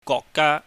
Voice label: "gwokga", Cantonese

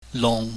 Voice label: "lung", Chinese

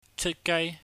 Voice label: "cheutgaai", Cantonese

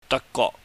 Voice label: "dahkgwok", cantonese

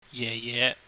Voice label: "yeh yeh", Cantonese

